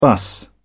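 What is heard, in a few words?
"bus", British English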